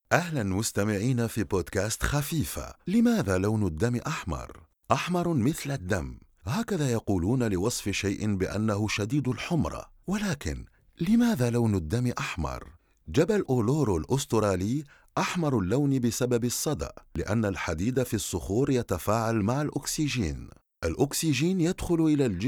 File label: arabic commercial